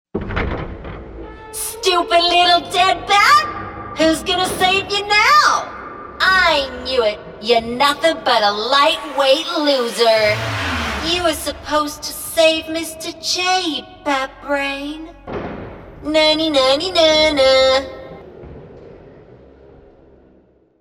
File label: Video Game Character; Harley Quinn